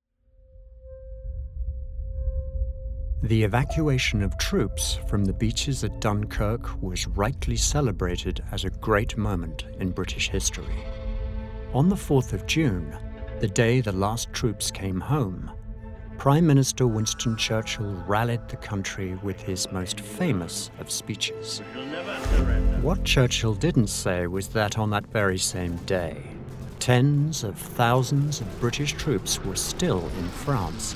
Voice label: English RP BBC WW2 Documentary Reel